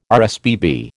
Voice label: "RSPB", American

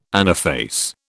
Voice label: "anaphase", British

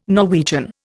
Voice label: "Norwegian", Indian

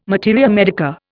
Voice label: "materia medica", Indian

